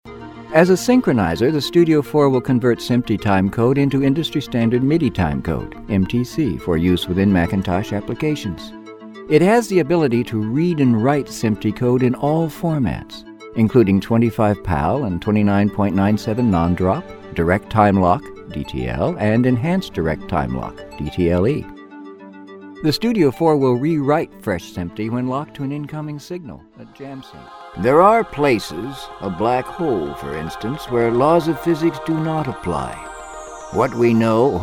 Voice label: narration